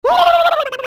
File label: mario shocked; smsunshine